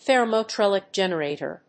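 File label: "THERMOELECTRIC GENERATOR", English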